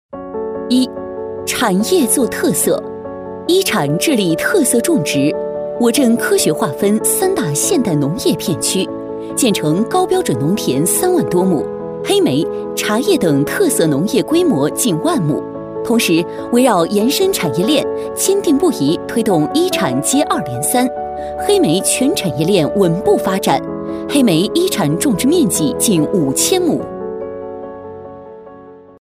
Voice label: 女 宣传片 正式讲述

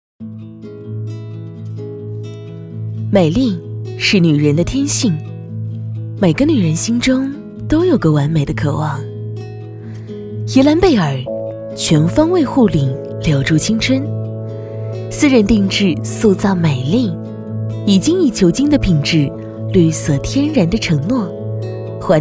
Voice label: 女 温柔品质; 宜兰贝尔只为更精致的你

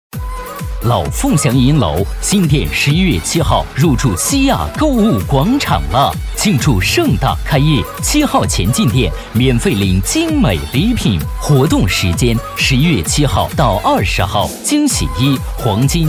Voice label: 男, 促销广告, 激情; 老凤祥银楼

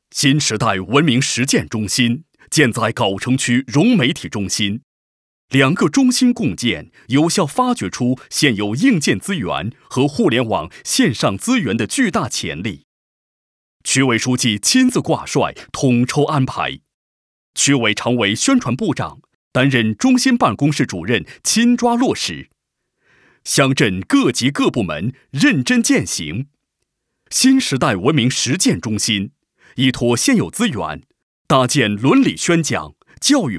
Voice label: 男, 专题, 大气; 新时代实践中心

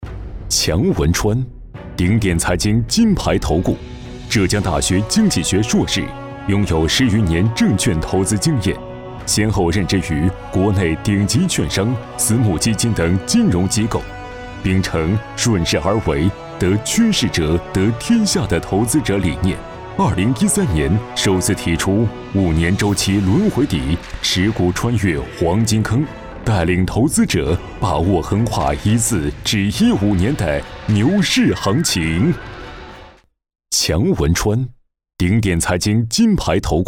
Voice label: 男,人物介绍,颁奖

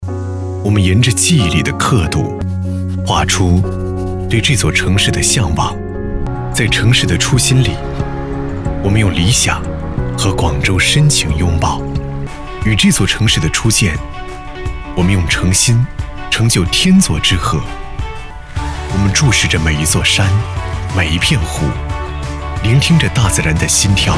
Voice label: 男 专题 城市宣传; 广州城市宣传三大中轴

